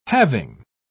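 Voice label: "having", British English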